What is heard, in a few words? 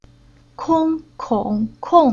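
"Kong", Chinese syllable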